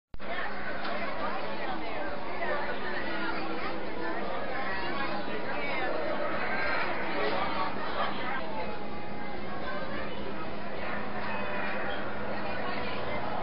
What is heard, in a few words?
people talking in background